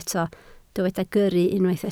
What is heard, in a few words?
"gyrru", Welsh